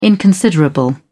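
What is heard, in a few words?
"inconsiderable", British English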